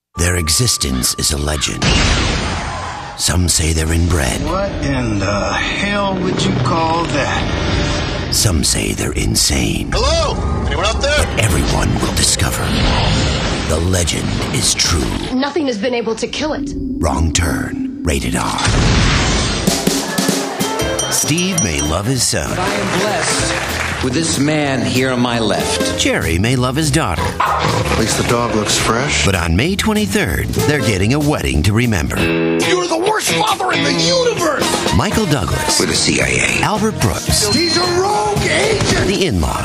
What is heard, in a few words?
trailers, voiceover